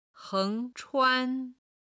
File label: "heng2 chuan1", Chinese